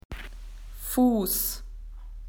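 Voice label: "foot", Australian English; PictureDictionary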